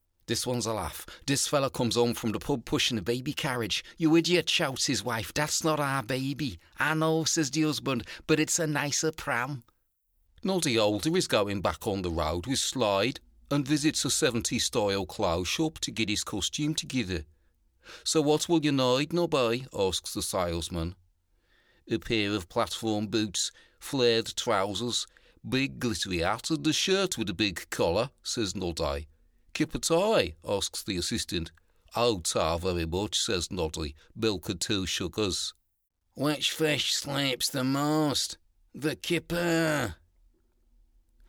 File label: English Regions comedy voices